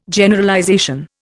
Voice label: "Generalization", Indian

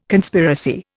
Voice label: "Conspiracy", Indian

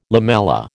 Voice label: "lamella", American

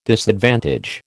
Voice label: "disadvantage", American

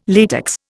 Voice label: "latex", Indian

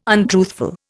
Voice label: "untruthful", Indian

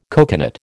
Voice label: "coconut", American

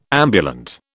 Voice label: "ambulant", British

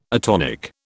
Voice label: "atonic", British

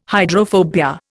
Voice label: "hydrophobia", Indian

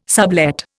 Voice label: "sublet", Indian

